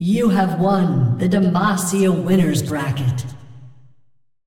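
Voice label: vo announcer female tournaments win winners bracket; Clash; demacia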